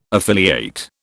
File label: "affiliate", British